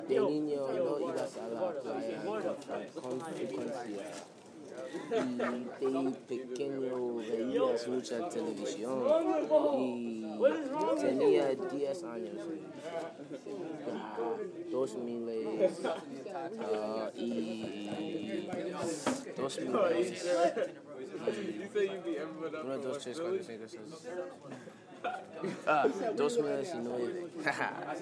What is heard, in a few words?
Spanish homework